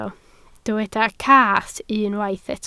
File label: "cath", Welsh